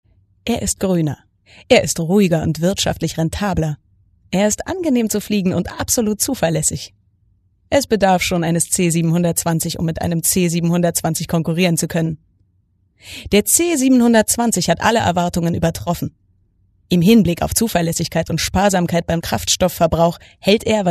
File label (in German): Werbung